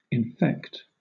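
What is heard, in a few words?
"infect", British English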